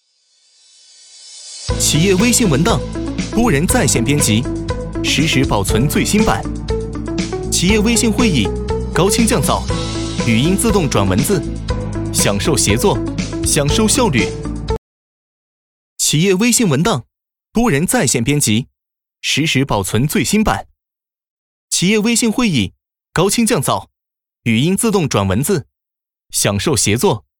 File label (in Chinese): 年轻旁白; 企业微信